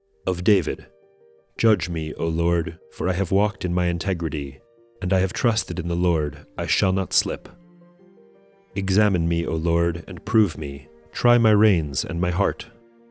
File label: poetry and prayer psalm english